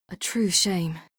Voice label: Female; Skyrim Special Edition; Dawnguard Beleval

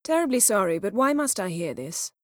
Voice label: female

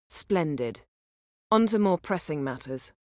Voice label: female

